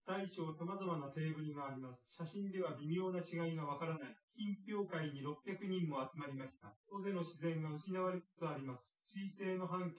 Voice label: test male synth conv, underdetermined; SiSEC10